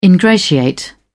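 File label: "ingratiate", British English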